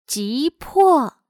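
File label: "急迫", Chinese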